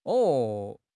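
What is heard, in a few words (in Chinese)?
"哦", Chinese; HSK6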